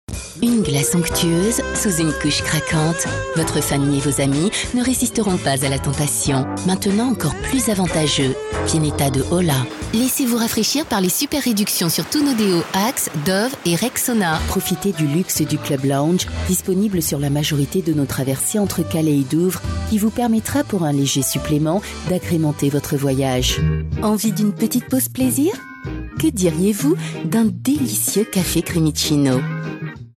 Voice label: french female commercial, Friendly French language French Accent Voice